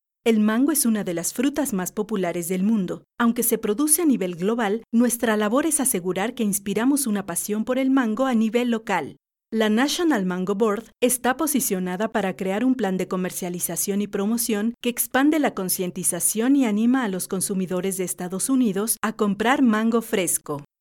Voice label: eLearning/Training Female Spanish (Latin American)